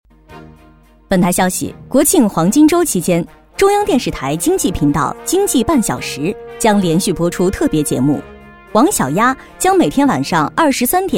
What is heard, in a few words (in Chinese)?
新闻 正播新闻